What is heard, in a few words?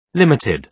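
"limited", British English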